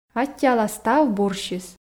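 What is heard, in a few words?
"аттьӧала став бурсьыс", Komi, female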